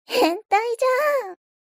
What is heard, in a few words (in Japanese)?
mob 女子 台詞